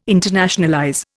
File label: "internationalize", Indian